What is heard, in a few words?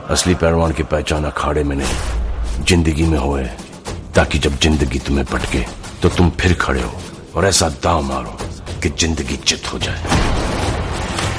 funny dialogues ringtone